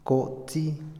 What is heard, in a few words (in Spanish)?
devolver, Otomí, hombre; Posesión; INALI